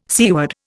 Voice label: "seaward", Indian